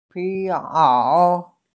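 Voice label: "piao", Mandarin Chinese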